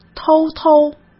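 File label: "偷偷", Mandarin Chinese; chinese flashcards; hsk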